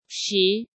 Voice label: "Shi" 3